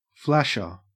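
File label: "flasher", Australian English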